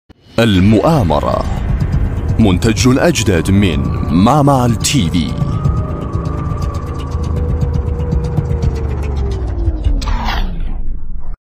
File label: arabic